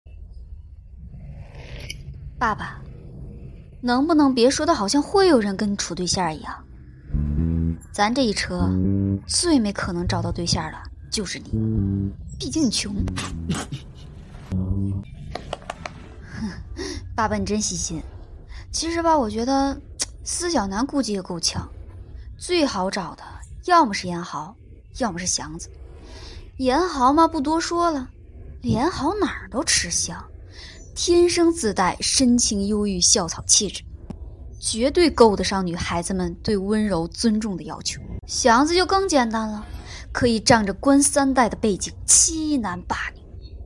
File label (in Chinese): 广播剧